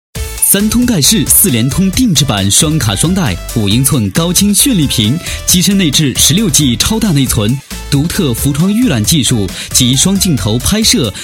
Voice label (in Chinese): 广告 手机通信 智能手机 活力; 三星